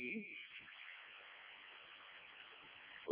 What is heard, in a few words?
decoded speech, parrot